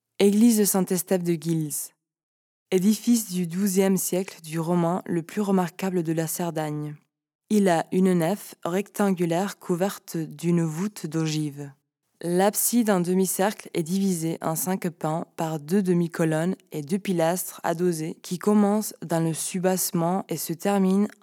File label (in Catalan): locucio frances; sant esteve guils